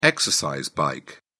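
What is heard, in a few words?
"exercise bike", British English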